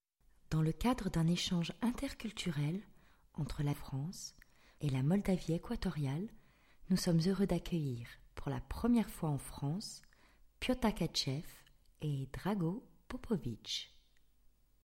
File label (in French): Voix off; LES MOLDAVES